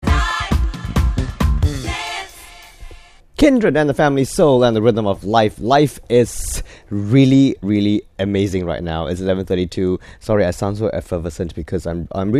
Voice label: Radio Show Sample, English Singaporean Male

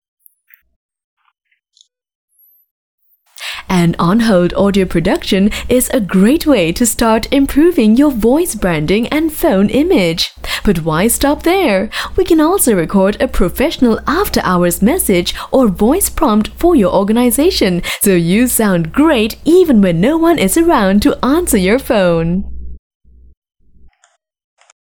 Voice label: English, Singaporean Female

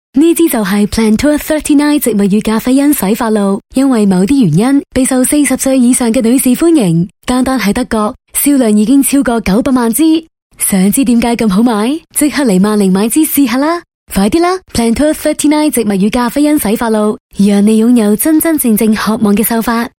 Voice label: Cantonese, Female; Plantur

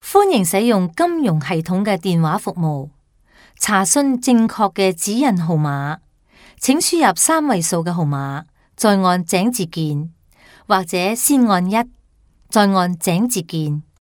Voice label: IVR Cantonese, Cantonese Female

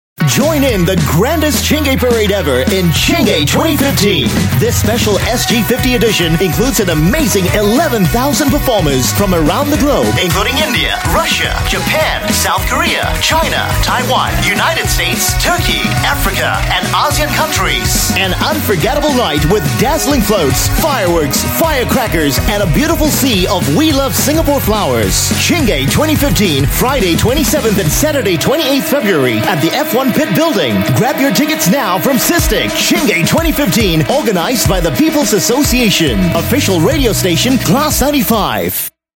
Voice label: English, English Singaporean Male; CHINGAY 2015

